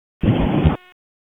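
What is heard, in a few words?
Raleigh Police Southeast District Dispatch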